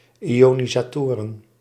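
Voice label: "ionisatoren", Dutch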